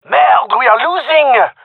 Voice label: round losing, male; vo